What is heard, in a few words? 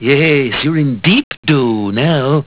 deep Doh; Burns; simpsons